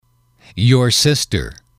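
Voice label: your sister, English, male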